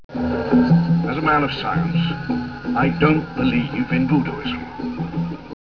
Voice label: zombie